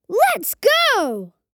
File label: Lets go boy child kid